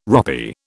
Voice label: "ropy", British